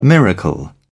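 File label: "miracle", British English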